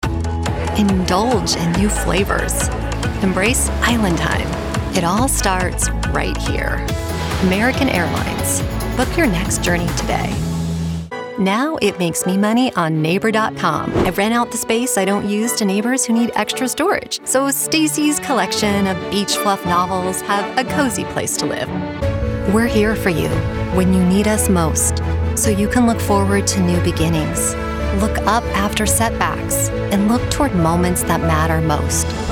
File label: Commercial